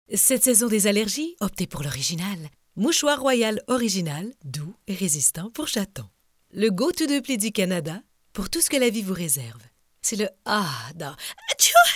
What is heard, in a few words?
Voices Royale Facial Allergy Digital French Canadian